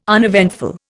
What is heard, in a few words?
"uneventful", Indian